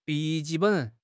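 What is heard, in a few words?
"bi3 ji4 ben3", Mandarin Chinese; HSK3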